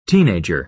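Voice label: "teenager", American English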